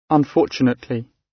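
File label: "unfortunately", British; Space, the planets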